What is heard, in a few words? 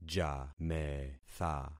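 "jametha", concatenated syllables; Affixing experiment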